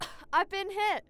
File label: hit, Barklines Combat Voice Lines; EndlessVendetta